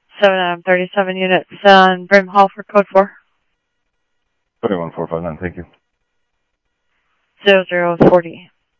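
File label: Mesa Simulcast Police Department; MESA PD 2 Centrl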